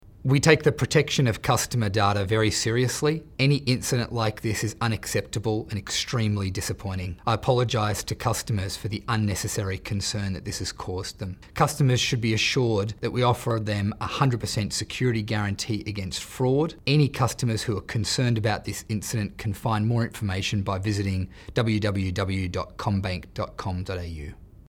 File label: radio grab; customer data incident